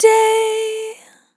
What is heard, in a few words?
"Long Day" A4, Vocal Samples, Words